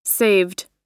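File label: "saved", US English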